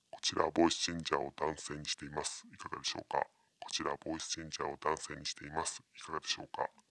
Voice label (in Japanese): ボイスチャンジャー 男性